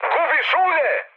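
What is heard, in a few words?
Serbian damaged oil mood high; War Thunder; speech srb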